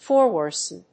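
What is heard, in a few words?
"foresworn", English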